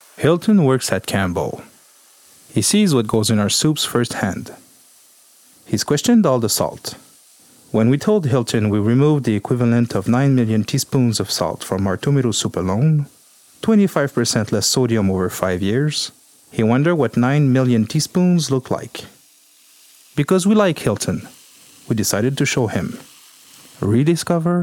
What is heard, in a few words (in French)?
Pub anglais